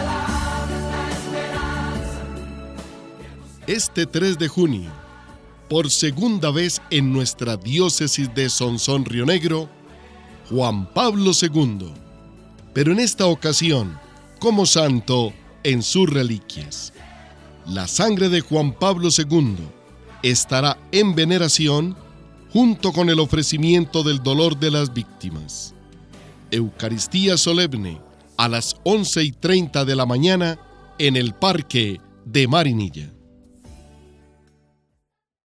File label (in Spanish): anuncio colombia; Escuchar anuncio de llegada de la Reliquia de S. Juan Pablo II a Colombia; Reliquia de Sangre de S. Juan Pablo II; Peregrinacion de la Reliquia de San Juan Pablo II